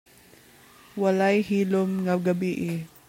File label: Cebuano